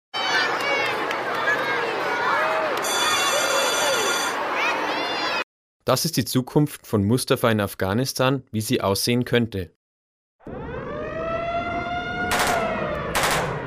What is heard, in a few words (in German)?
Werbung Kinderhilfe